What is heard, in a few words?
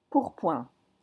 "pourpoint", French